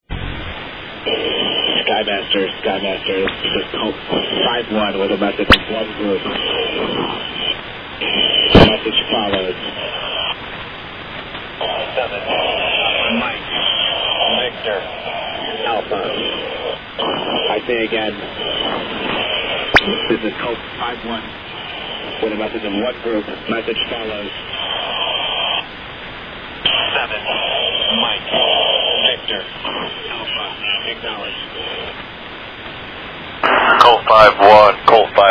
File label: megaphone